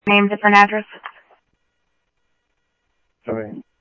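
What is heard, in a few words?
Simulcast police department, scanner audio trunk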